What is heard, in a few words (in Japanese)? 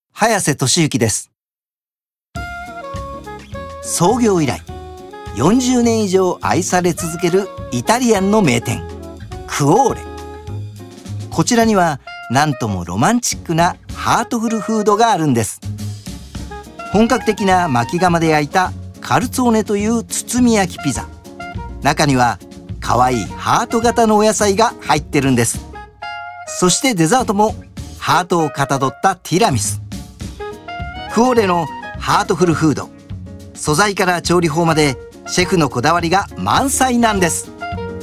番組ナレーション